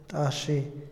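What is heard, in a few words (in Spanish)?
"limpio", Otomí, male; hombre; Percepción; INALI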